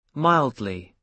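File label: "mildly", British English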